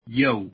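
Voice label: pronunciation English "yo"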